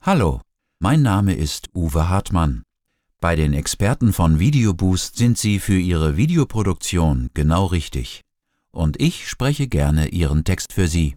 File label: Voiceover